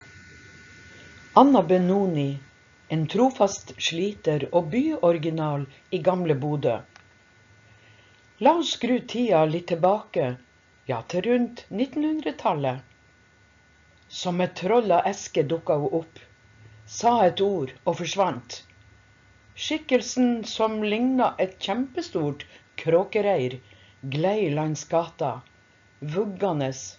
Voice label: voice over